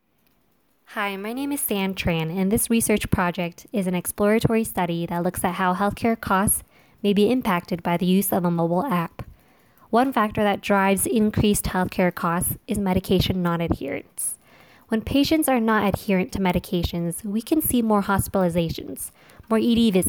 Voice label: Poster Voice Over